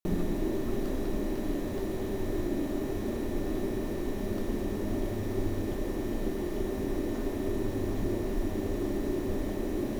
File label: female